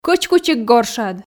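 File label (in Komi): Komi, кӧч кучик горшад, female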